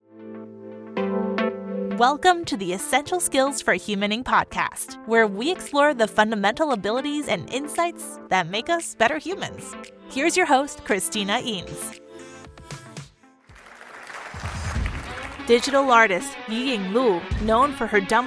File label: english american podcasts